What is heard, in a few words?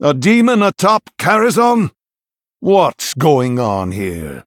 Male Human